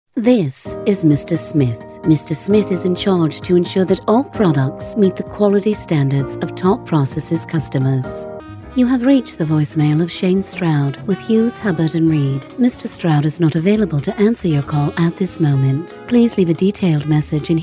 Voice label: US English British